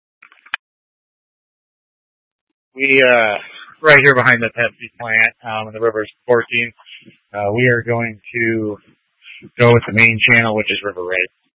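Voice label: Incident Tactical 1, Scanner audio recording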